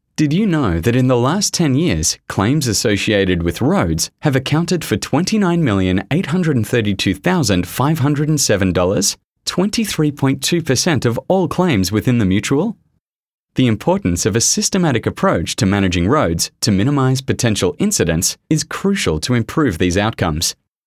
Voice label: E-learning